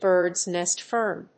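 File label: "Birds nest fern", English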